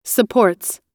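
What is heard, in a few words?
"supports", US English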